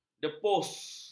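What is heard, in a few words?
"depus", Malay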